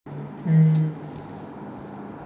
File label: voice message